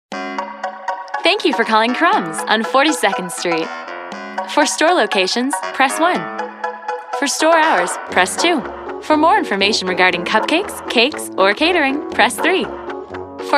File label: perky